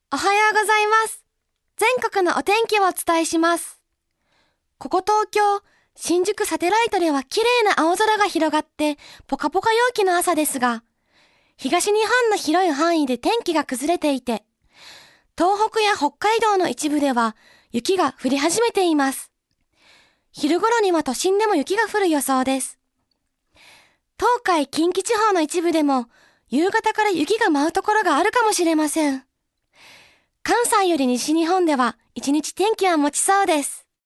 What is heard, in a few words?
Narr